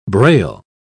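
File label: "brail", British English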